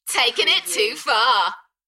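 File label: UK female, Enthusiastic, DJ Vocal; beanstalk-audio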